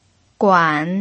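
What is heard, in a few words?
"guan" third tone, pinyin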